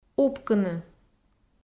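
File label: "упкыны", Komi, female; kpv audio dict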